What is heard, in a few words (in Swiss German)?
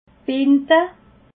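"binde", Alsatian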